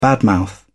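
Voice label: "bad mouth", British English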